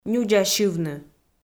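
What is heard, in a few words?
"нюдза сьывны", Komi, female; audio dict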